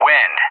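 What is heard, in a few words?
"wind", English; JTAC; DCS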